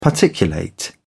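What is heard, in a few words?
"particulate", British English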